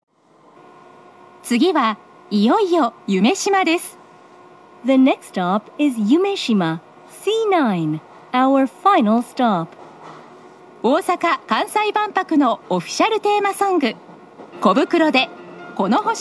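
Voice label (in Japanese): 大阪メトロ中央線車内放送, 次はいよいよ夢洲; 次はいよいよ夢洲(万博会期中); 大阪メトロ(大阪市営地下鉄)中央線車内放送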